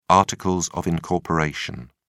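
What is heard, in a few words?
"articles of incorporation", English, British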